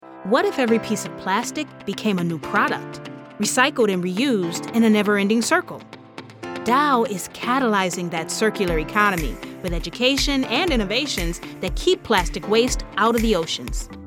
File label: Narrative